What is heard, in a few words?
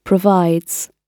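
"provides", British English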